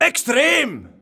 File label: "extrem", shouts; Best Service; Hyper Dance